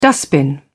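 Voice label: "dustbin", British English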